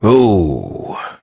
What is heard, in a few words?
Horse Stop Walk Adult; Duviri